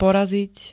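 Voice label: "poraziť", Slovak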